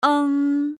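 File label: final "eng", Chinese pinyin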